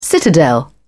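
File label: "citadel", British English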